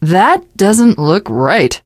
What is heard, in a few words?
teengirl, voice; Left 4 Dead 2